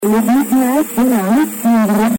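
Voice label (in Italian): "lo dici", Italian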